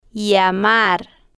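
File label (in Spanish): "llamar", spanish, female, slow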